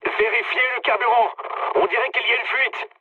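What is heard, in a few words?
French tank damaged mood high; War Thunder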